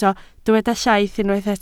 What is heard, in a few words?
"llaith", Welsh